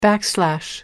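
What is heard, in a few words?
"backslash", British English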